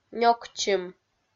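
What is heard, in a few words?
"нёкчим" Komi-Zyrian, female; kpv audio dict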